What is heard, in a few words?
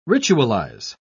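"ritualise", British English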